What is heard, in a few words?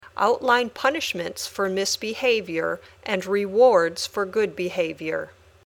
Dictation